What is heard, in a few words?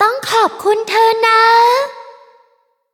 level up, th; cookie run